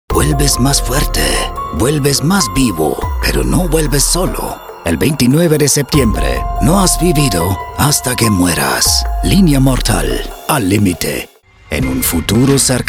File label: spanish movie trailers